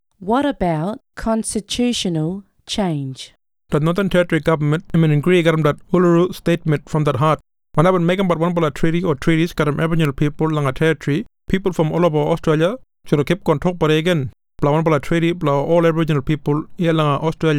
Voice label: Kriol; WHAT ABOUT CONSTITUTIONAL CHANGE